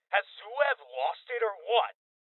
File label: Voices Male Young; rebel cops 2019 gamerip